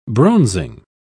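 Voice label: "bronzing", British English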